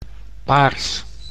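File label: "paars", Dutch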